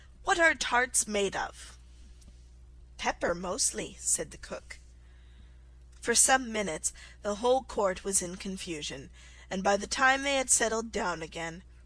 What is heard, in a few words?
alice in wonderland slow